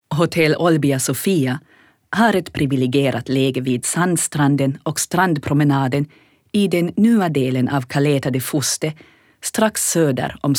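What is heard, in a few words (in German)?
Swedish, Kommentar, Neutral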